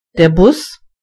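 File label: bus, German voices words; gcompris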